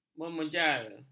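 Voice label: "memejal", Malay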